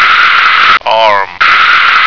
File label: "arm", American